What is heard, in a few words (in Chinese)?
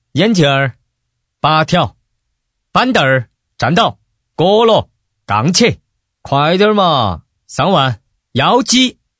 男声 四川 麻将; 给腾讯配的